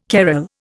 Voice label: "carrel", Indian

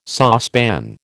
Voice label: "saucepan", American

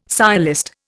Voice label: "sciolist", Indian

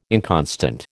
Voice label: "inconstant", American

